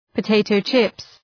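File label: "potato chips", English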